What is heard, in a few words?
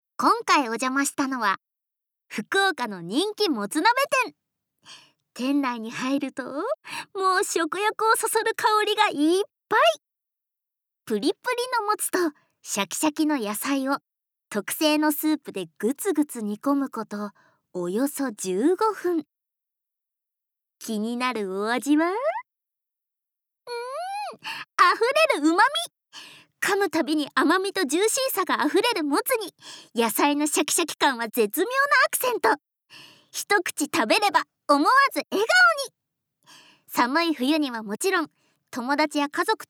Narration